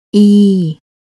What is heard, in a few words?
"Ee", Thai; desire requesting